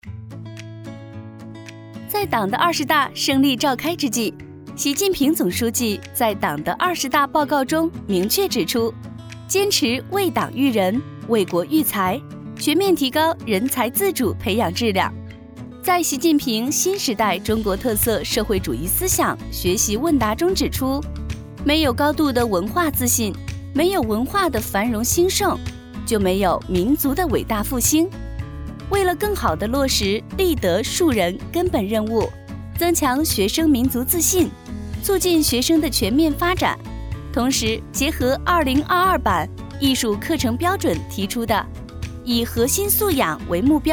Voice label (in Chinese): 旁白活力